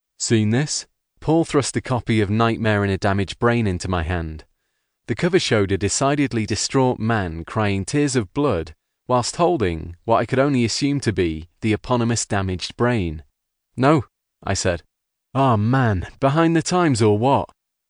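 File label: Voice Actor Audiobook, Male; Dead Leaves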